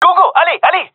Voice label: request move, gendermerie male